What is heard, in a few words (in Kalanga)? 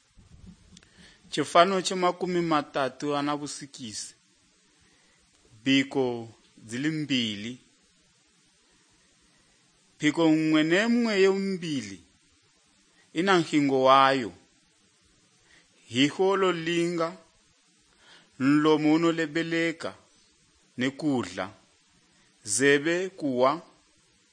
good news kalanga lilima, drama